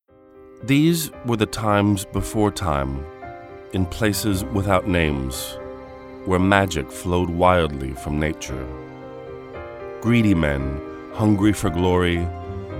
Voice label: male american english book comercial